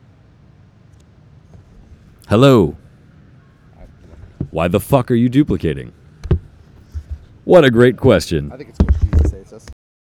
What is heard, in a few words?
Male Voice; NYCC 2013